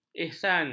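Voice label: "ihsan", Malay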